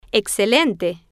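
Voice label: "excelente", Spanish, female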